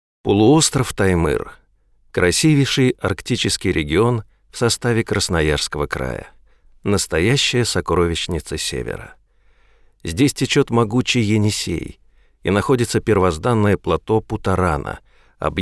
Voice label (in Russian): ЗАКАДР